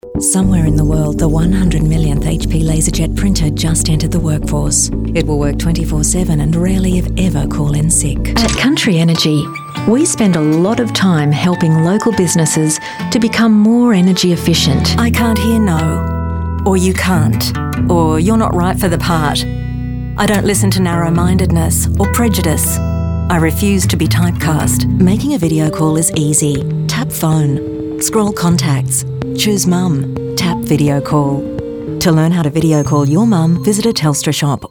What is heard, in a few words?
soft